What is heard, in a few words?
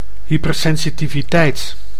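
"hypersensitiviteit", Dutch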